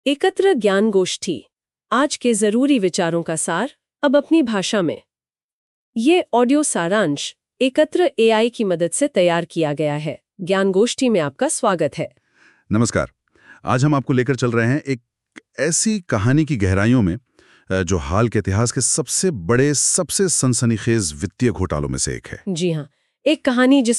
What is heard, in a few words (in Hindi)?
Audio hindi; billion dollar whale